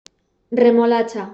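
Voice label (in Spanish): "remolacha", Spanish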